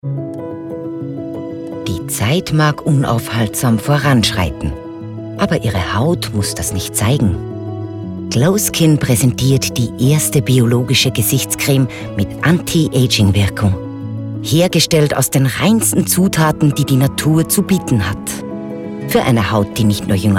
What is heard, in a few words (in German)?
Werbung, hoerproben; Glowskin